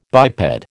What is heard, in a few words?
"biped", American